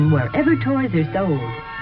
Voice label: wherever toys are sold female

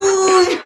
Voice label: "ooh", Voice

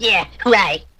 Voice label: yeah right, cartoon; Voice

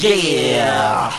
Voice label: "yeah", group of men

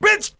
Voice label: "bitch", male

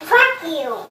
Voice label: "fuck you", toddler; Voice; expletives

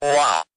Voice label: wah robot; ooh ahh

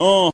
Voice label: "uh", male

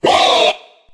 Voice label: "woah", group of men; ooh ahh

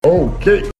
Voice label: okay cartoon

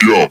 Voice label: "yo", voice

